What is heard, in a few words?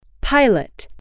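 "pilot", English, female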